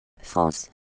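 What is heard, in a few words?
"France", French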